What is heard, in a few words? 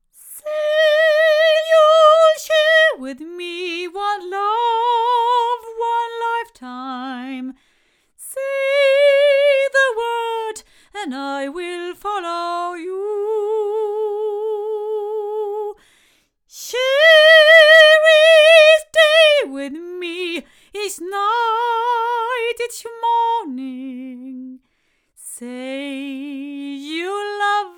ADK A51TL cardioid female, microphones